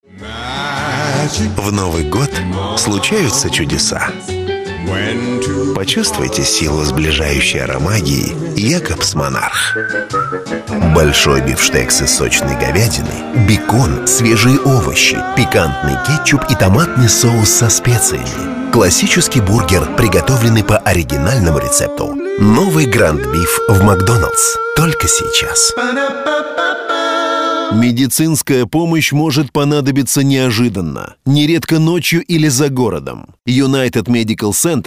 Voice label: diktor